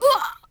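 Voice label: Twi female damage; XBOX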